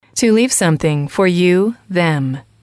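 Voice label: to leave something for you them, English, female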